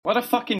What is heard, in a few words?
hyper bruh